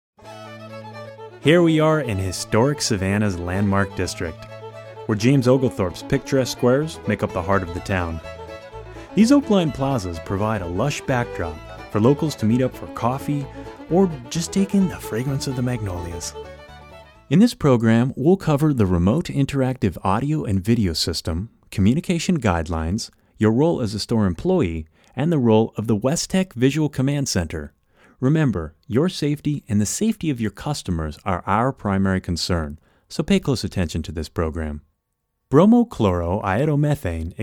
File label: narration